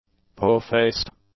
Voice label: "po-faced", english; adjectives

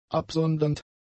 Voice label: "absondernd", German; adjectives

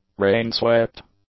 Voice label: "rain-swept", English; adjectives

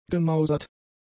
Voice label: "gemausert", German; adjectives

